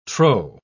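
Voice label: "trow", British English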